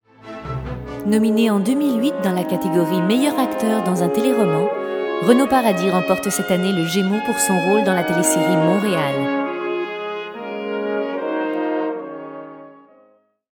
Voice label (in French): presentation